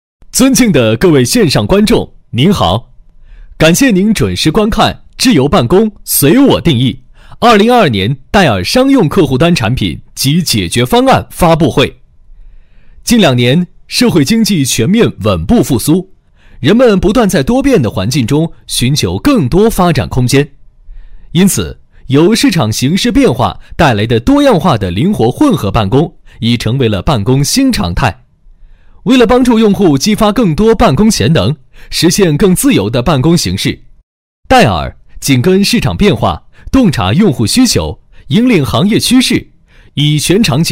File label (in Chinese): 男, 旁白, 商用客户端新品发布会; 智由办公随我定义戴尔